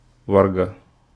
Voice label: "warga", Wolof; thee-in-andere-talen

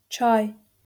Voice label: "چای" Iraans; thee-in-andere-talen

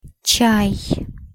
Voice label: "чай", Abazijns; thee-in-andere-talen

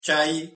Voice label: "čaj", Kroatisch; thee-in-andere-talen

